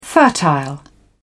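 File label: "fertile", British English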